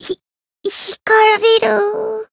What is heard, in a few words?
retire, jp